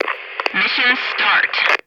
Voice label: American Female Mission Start